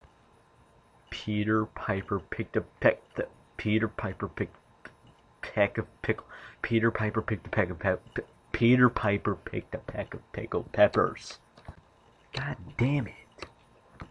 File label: tongue twisting